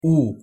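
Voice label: "u", Spanish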